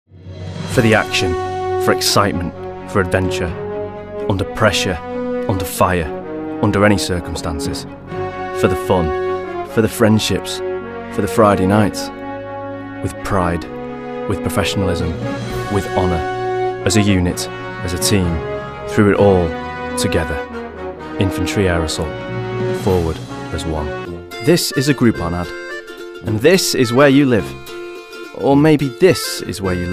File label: commercial voice reel